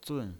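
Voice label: "zun", Mandarin Chinese, tone 4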